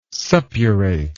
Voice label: "suppurate", English word

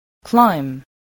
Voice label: "climb", voice

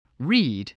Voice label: "reed", spelling voice

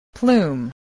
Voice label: "plume", English

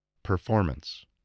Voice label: "performance", English, voice

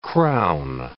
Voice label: "crown", english

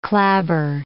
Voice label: "clabber", English

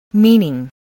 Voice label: "meaning", spelling voice

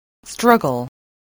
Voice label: "struggle", spelling

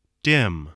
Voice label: "dim", english spelling

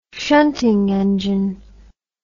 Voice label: "shunting engine", words

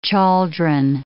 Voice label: "chaldron", English

